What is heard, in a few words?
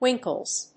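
"winkles", English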